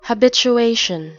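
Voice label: "habituation" US English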